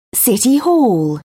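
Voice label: "city hall", British English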